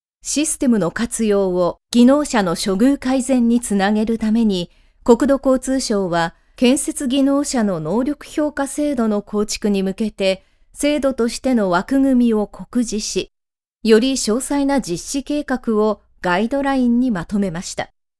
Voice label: Japanese Native

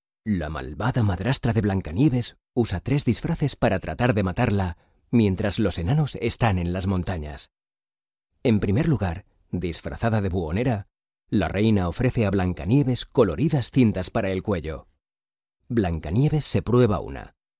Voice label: Spanish Spain

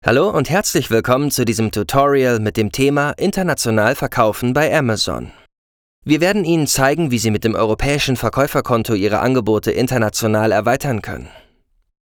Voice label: German Native

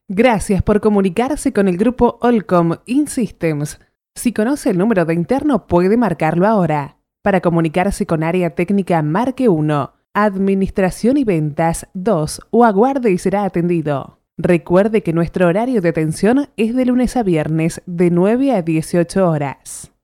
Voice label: Spanish Latin

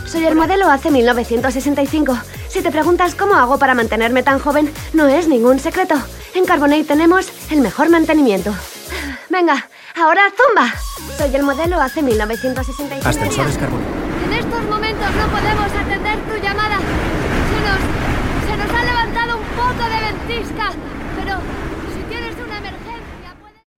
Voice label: Spanish Spain

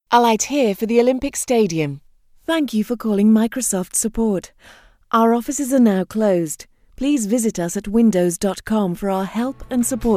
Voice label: English United Kingdom

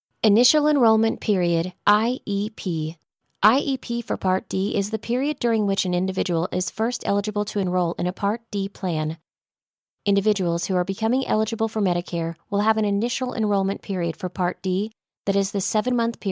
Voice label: English USA

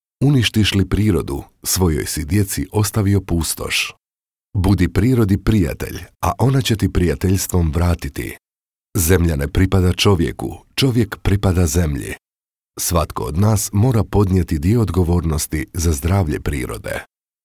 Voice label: Croatian Native

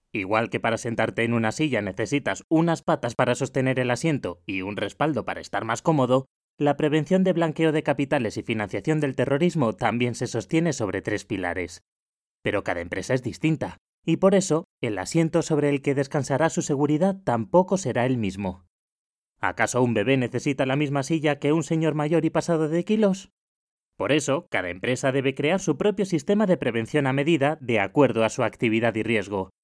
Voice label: Spanish Spain

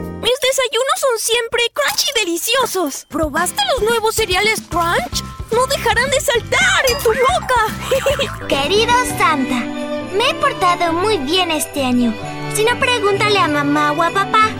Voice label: Spanish Latin

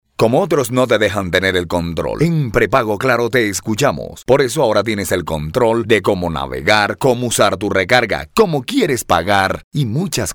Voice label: Spanish Neutral

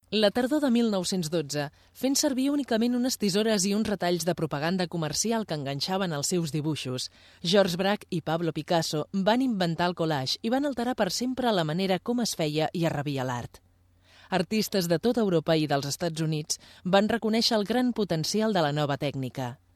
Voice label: Catalan Native

